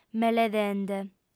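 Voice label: "meledende", female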